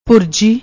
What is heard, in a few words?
"bhuraji", Punjabi